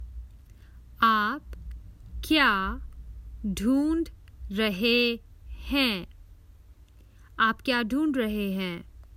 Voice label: What are you looking for, Common Hindi Phrases for travel